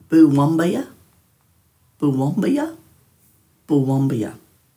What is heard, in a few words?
"buwambay", Dhurga